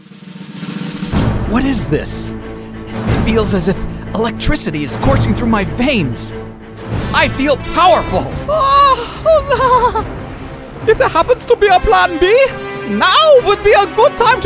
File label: Animation VO